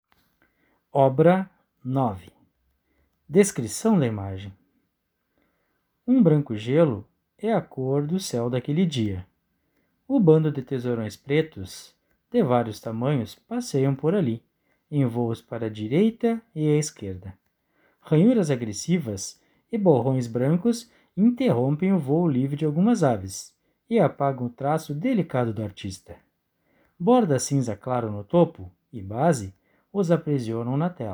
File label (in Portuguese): audiodescricao; Galeria virtual